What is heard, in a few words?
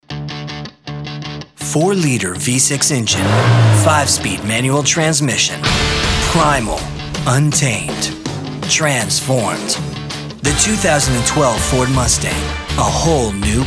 commercial male